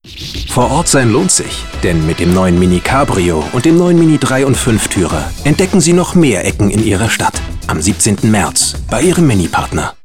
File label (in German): Spots OFF, maenner; MiniCabrio